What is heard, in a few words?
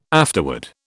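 "afterword", British English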